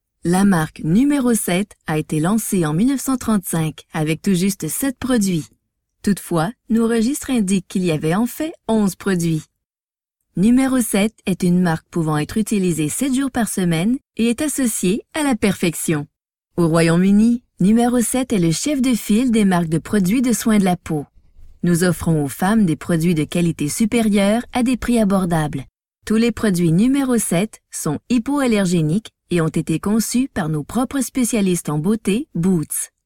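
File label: Canadian French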